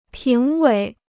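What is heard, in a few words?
"评委", Chinese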